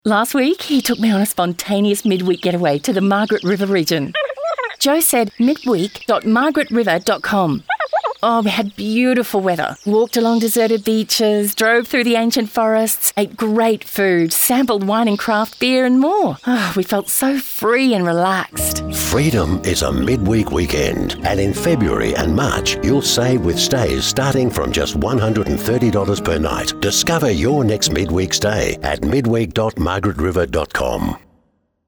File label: Radio ad